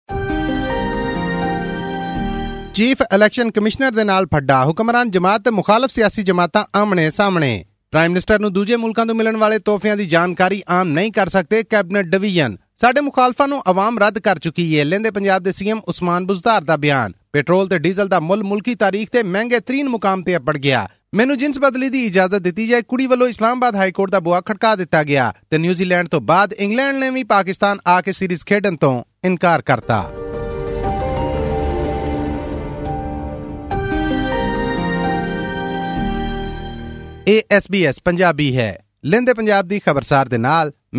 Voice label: punjabi; Pakistan Diary: After New Zealand, England also cancels cricket series; SBS Punjabi

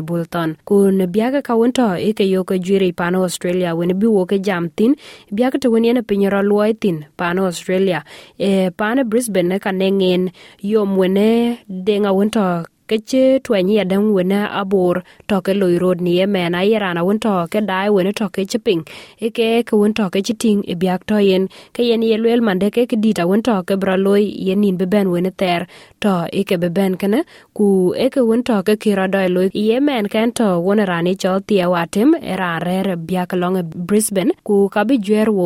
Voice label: dinka; Cyclone Alfred; SBS Dinka

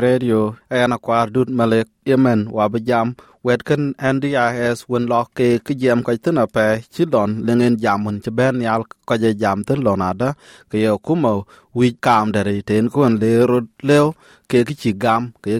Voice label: dinka; SBS Dinka

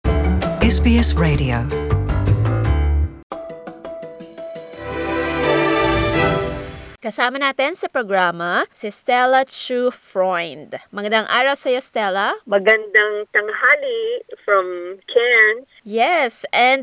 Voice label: filipino; Husband-and-wife tandem uses visual storytelling to capture stories about wildlife conservation; SBS Filipino

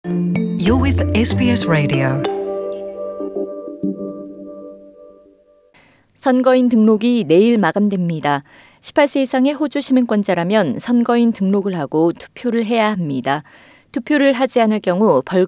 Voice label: korean; SBS Korean; 2019 연방 총선: 유권자 등록 마감 4월 18일

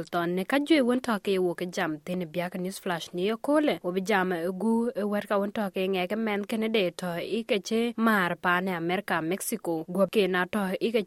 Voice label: dinka, podcast; SBS Dinka News Flash 06/05/2024

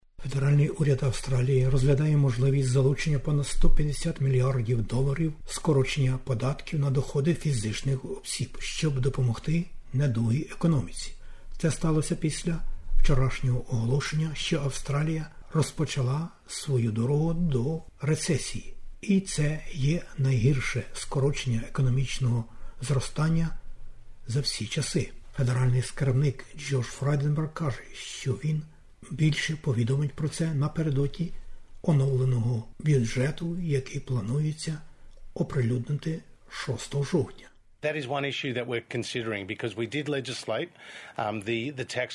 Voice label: ukrainian; SBS Ukrainian; SBS НОВИНИ УКРАЇНСЬКОЮ

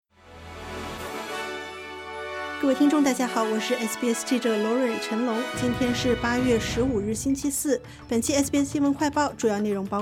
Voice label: mandarin news flash; 澳七月失业率上升 新增岗位超预期; SBS Chinese; SBS新闻快报

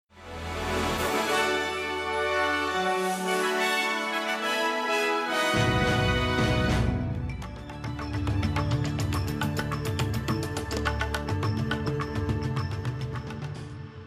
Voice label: gujarati newsflash; SBS Gujarati Australian update: 20 February 2025

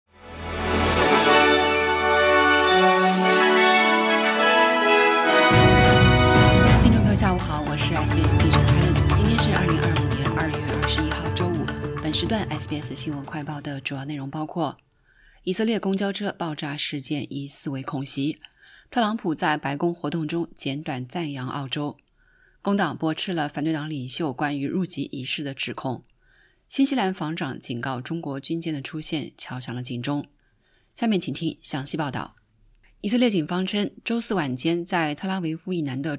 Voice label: mandarin newsflash; 警方称以色列公交车爆炸事件“疑似恐袭”; SBS Chinese; SBS新闻快报

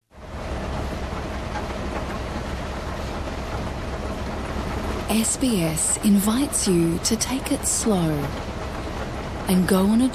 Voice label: korean; Slow TV comes to SBS with The Ghan: Australia’s Greatest Train Journey

